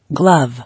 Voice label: "Glove", English